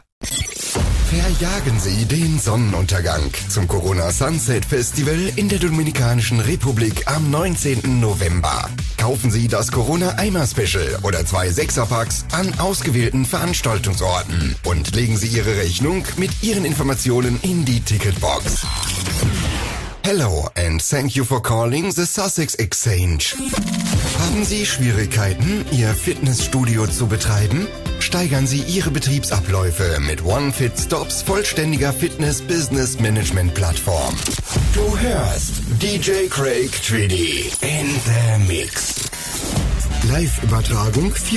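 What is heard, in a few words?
German, male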